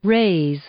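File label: "raise", English, female; verb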